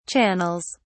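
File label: "channels", British English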